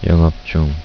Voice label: "open", korean